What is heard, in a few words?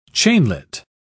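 "chainlet", British English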